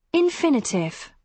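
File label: "infinitive", British English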